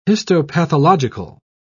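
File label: "histopathological", British English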